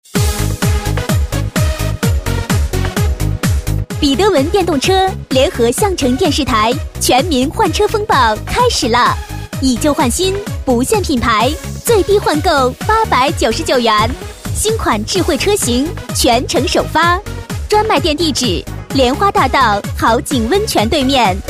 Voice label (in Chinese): 女 促销 电动车, 年轻广告